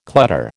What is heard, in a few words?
"clutter", American